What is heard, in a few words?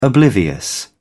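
"oblivious", British English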